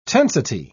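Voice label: "tensity", British English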